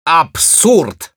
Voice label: negative vocalization, ru

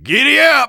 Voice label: battlecry; Engineer

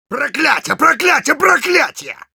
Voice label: negative vocalization, ru; Engineer

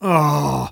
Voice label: negative vocalization

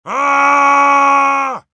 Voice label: battle cry, ru; Heavy

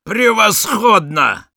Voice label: positive vocalization, ru

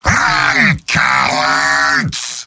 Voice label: battlecry; Heavy

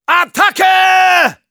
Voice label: Soldier battle cry, de

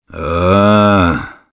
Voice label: negative vocalization, ru; Sniper

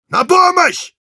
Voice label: Engineer help me, ru

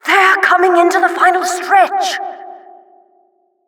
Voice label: Announcer race general

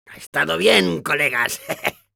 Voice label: cheers, es; Demoman

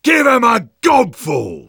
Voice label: battle cry; Sniper

